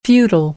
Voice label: "futile", American; AmericanBritish

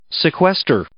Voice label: "sequester", English

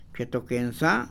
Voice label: "rehusar", Mam; INALI

